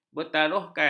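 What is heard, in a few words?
"bertaruhkan", Malay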